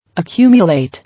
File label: "Accumulate" English